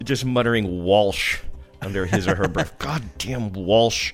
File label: muttering walsh